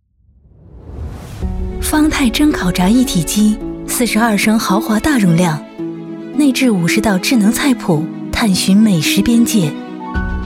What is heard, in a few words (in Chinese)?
女 科技感; 方太